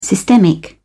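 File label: "systemic", British English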